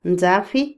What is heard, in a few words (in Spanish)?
escopeta, cañón, Otomí, mujer; INALI